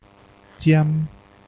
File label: Taiwanese The Consonant ch